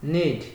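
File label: "need", Nigerian Pidgin, male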